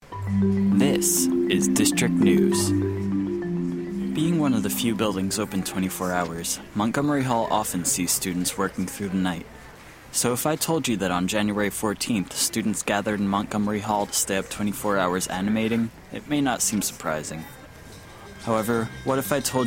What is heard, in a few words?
Radio News Reporting